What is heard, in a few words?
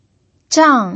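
"zhang" Mandarin, fourth tone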